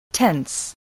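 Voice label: "tense", English word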